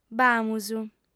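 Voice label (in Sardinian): "b'amus", female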